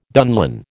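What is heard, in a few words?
"dunlin", American English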